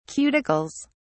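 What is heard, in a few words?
"cuticles", British English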